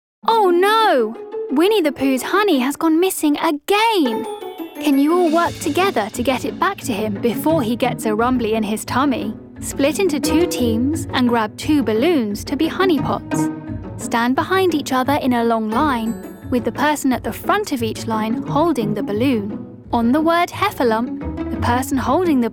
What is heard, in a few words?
Kids Elearning